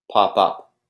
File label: "pop up", English